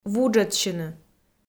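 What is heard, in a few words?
"вуджӧдсьыны", Komi, female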